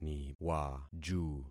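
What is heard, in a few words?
"niwaju", concatenated syllables; Affixing experiment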